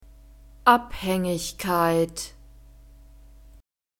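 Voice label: "Abhängigkeit", German; feminine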